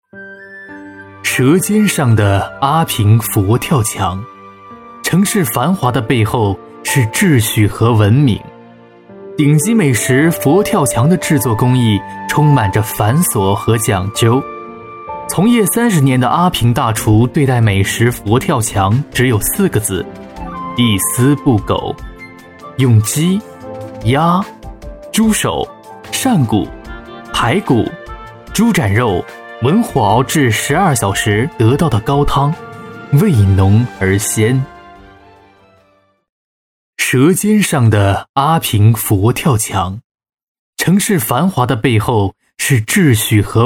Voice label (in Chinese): 舌尖类; 舌尖中国佛跳墙